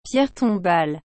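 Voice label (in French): "pierre tombale", French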